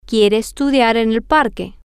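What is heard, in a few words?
he wants to study at the park, spanish, female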